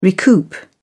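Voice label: "recoup", British English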